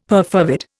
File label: "perfervid", Indian; Dictionary